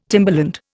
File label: "timberland", Indian